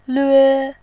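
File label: "lve", Mandarin, tone 1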